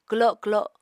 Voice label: "kwlo kwlo", Apatani

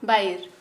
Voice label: "old", Kharia

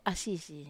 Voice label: "asisi", Wamesa

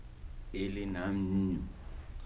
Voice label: "salty", Kibembe

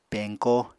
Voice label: "peñko", Apatani

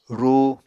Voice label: "rúu", Apatani

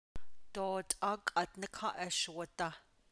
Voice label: I did not want to help you, Navajo; postposition -á for, for the benefit of

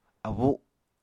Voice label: "abu", apatani

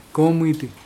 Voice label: comb, Mokilese

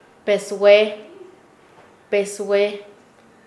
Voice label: "ladron", Nasa Yuwe; paez

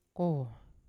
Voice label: "ko" to live, Yawa

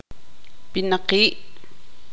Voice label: tzutujil

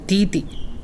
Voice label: "bosom", Pingelapese

